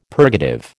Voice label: "purgative", American

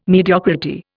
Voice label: "mediocrity", Indian

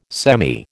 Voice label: "semi", American

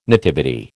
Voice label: "nativity", American

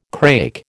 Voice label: "crake", American

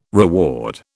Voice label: "reward", British

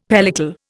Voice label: "pellicle", Indian; Dictionary

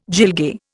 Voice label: "gilgai", Indian

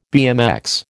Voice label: "BMX", American

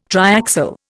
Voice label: "triaxial", Indian

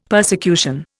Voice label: "persecution", Indian; Dictionary

